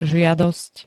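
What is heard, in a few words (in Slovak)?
"žiadosť", Slovak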